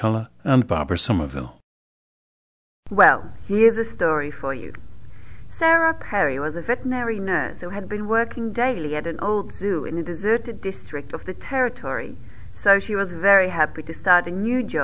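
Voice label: netherlands, International Dialects of English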